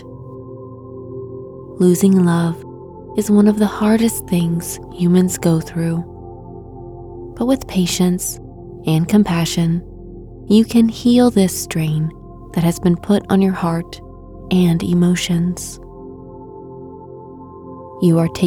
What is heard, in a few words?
Meditation for a Broken Heart